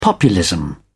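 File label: "populism", British English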